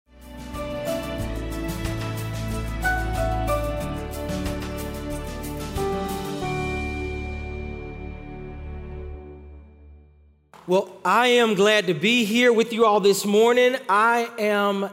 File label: Sermon English